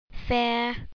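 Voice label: "fare", English word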